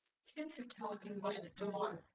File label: calm Anger generated; GAN for speech spectrogram